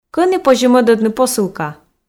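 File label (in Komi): кӧні позьӧ мӧдӧдны посылка, Komi, female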